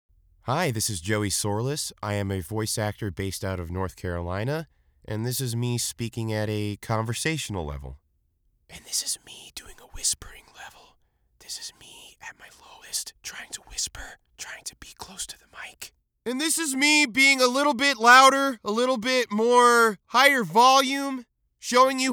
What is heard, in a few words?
Booth Sample